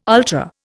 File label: "ultra", Indian